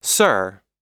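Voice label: "sir", American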